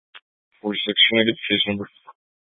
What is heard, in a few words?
Sheriff Dispatch; Mesa County